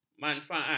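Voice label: "manfaat", Malay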